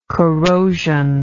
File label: "corrosional", English